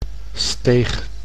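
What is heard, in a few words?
"steeg", Dutch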